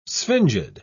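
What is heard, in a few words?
"sphingid", British English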